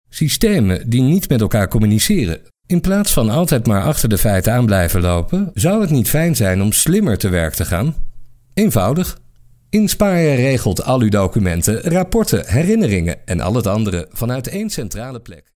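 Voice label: Dutch, male, e-learning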